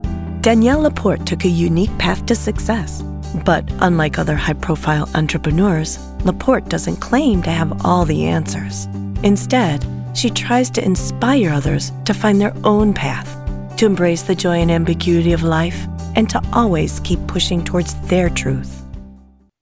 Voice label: Spokesperson; Coachingwellbeing